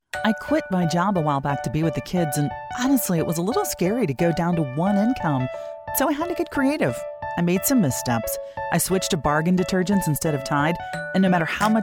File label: Trustworthy. Authentic. Credible.; Demo Voice Reel Montage; Emmy, Edward R. Murrow Award winning Reporter, Anchor, News Director-Voice Coach & Artist